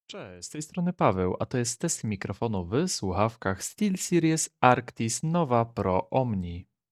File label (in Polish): steelseries arctis nova pro omni test mikrofonu stock cicho; test recenzja